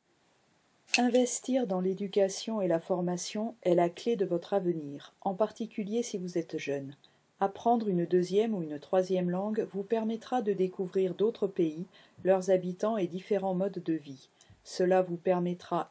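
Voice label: 1 minute trial dictation French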